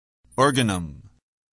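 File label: "organum", English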